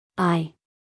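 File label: "eye", American English female; everyone-can-use-english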